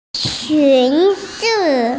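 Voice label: "skirt", Mandarin Chinese, female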